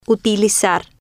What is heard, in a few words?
"utilizar", Spanish